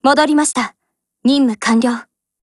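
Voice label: OPERATION OVER Japanese, Voice; GF Alarm; FAMAS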